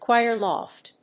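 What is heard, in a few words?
"choir loft", English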